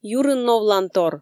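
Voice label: "юрын новлантор", Komi, female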